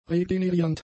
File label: "regeneriernd", German; adjectives